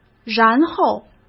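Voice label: "然后", Mandarin Chinese; hsk; chinese-flashcards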